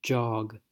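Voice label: "jog", US English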